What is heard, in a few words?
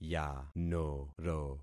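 "yanoro", concatenated syllables; Affixing experiment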